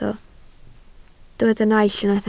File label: "naill", Welsh